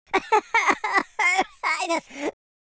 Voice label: lose loop, Patronising

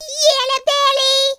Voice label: "coward", Cowgirl; speech

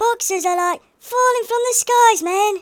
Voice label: drop, Hippy

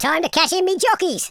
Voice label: "bye bye", London Cabbie; speech

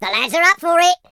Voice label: yes sir, Footy Fan speech

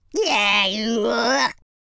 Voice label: angry, London Cabbie; speech

